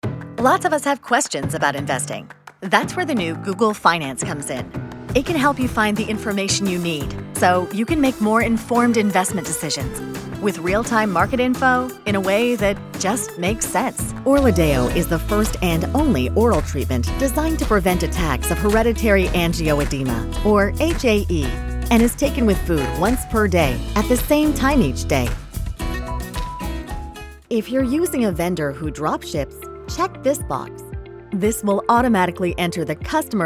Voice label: narration